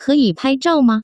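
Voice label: keyi paizhao, Chinese, Taiwan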